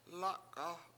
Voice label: "lAk7a7", washo; one